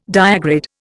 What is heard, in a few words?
"diagrid", Indian